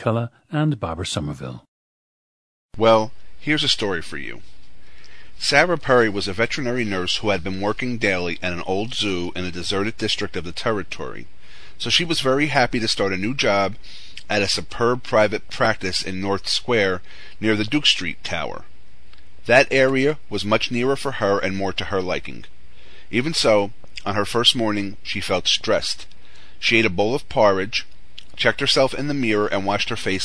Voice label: new jersey, dialects